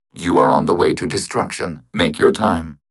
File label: happy; fertig classic games